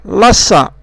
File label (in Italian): "lassa", dialetto; lassà lasciare